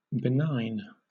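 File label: "benign", British English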